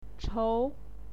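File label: "chou", Mandarin Chinese, second tone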